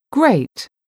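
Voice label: "grate", English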